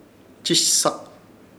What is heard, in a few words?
"Chishsak", Ishir Chamacoco; agarrar en firmeza